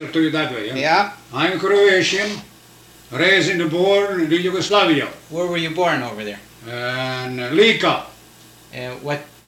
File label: Personal history with 88 year old male Eveleth Minnesota, american english dialect